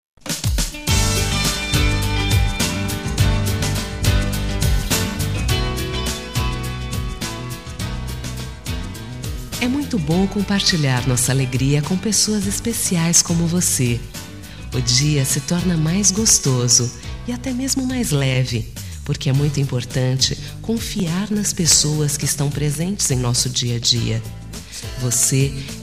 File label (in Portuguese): Amizade Mensagem pra Amizade, F